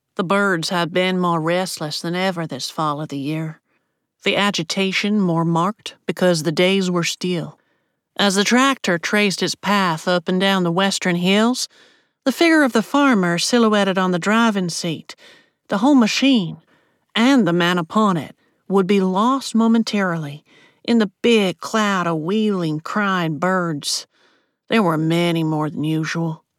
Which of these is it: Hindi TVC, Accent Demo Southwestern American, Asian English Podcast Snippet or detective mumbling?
Accent Demo Southwestern American